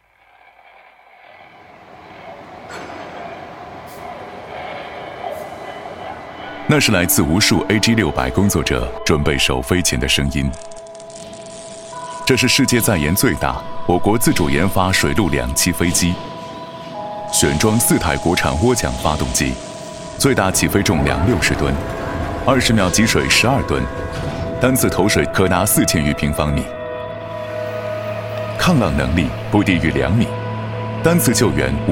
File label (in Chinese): 男 专题片; 和平精英; 国之重器